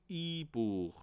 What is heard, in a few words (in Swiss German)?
"ebuech", alsace